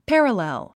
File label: "parallel"